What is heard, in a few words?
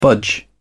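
"budge", English, British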